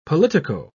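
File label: "politico-", British English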